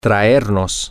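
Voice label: Spanish, to bring us, male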